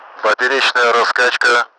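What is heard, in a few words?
transverse swing, Russian; DCS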